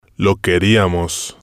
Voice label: "lo queriamos", spanish